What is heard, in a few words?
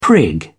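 "prig", British English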